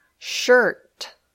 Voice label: "shirt", English